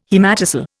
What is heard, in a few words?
"haematocele", Indian